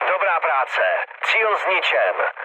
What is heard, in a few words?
bomb success, speech cze, mood low; War Thunder